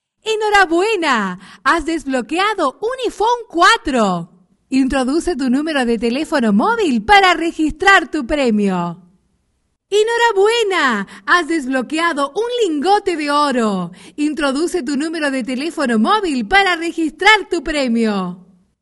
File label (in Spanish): locutora argentina argentinian voiceover